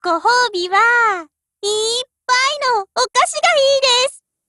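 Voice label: WIN Japanese, Voice; GF Alarm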